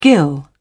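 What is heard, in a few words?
"gill", British English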